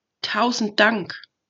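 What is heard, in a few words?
pronunciation tausend dank, German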